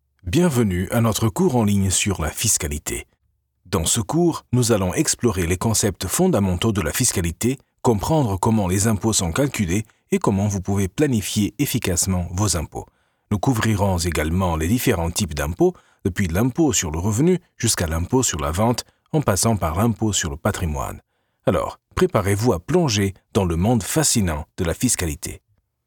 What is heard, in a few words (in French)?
elearning fiscalite